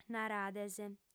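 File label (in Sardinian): "narades", female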